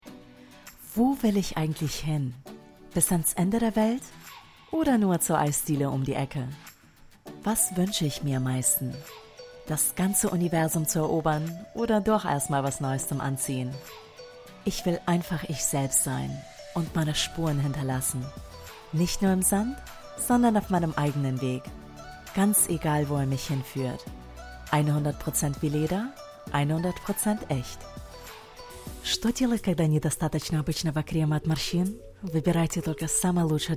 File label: VO GERMAN RUSSIAN